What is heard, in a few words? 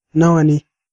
how much, hausa